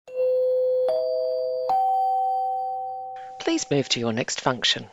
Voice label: three chimes ascending please move to your next function